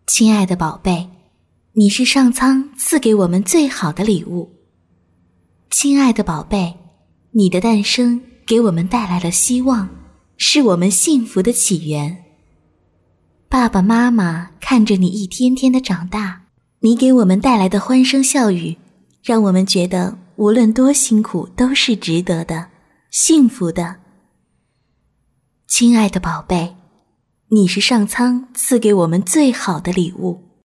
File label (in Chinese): 女 中文广告; 未来的礼物